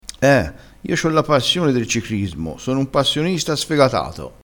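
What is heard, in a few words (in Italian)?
"passionista", dialettale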